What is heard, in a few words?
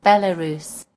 "belarus", en GB; gcompris